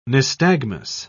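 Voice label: "nystagmus", English, British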